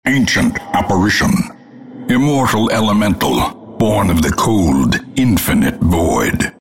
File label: announcer hero announced